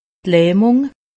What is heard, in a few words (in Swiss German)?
"lahmung", alsace; medecine